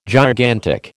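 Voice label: "gigantic", American English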